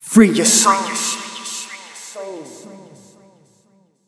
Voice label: Vocal FX; Free Your Soul FX

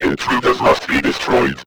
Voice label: Vocal Intruders Must Be Destroyed, pitch glitch

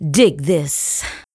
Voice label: Vocal Dig This, exclamation

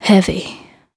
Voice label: Vocal "Heavy", exclamation

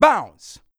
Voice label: Vocal "Bounce", exclamation

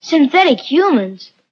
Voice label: Vocal Synthetic Humans

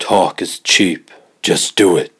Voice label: Vocal Talk Is Cheap Do It, pitch glitch

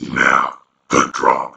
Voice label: Vocal Now The Drop, exclamation

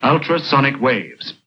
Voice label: Vocal ultra sonic waves, pitch glitch

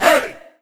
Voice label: Vocal "Hey", exclamation

